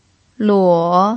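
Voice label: "luo", third tone, Mandarin Chinese pinyin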